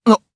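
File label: Vox Japanese; Kibera; kingsraid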